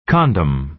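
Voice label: "condom", American English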